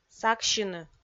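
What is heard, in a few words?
"саксьыны", Komi, female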